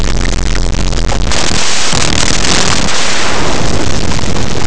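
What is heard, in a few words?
"encender", Spanish; laboratori fonetica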